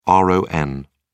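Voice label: "ron", English, British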